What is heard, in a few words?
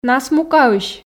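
"насмукаысь", Komi, female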